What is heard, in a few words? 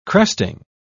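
"cresting", British English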